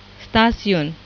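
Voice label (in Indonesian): "stasiun", Indonesian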